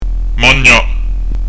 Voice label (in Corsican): "magna", pronuncia; dizco grammatica